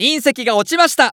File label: Announce Meteor Appear; Eternal Return